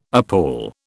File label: "appal", British